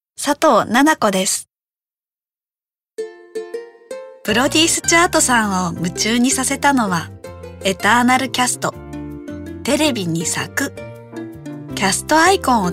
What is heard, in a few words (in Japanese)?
ナチュラル